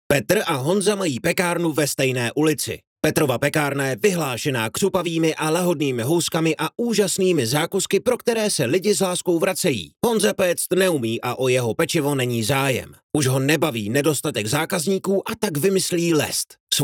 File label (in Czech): Mužský hlas - voiceover/dabing; (60 sekund)